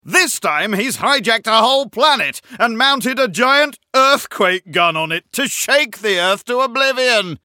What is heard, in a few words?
narrator line shakes; Danger Mouse - Ultimate